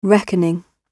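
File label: "reckoning", British English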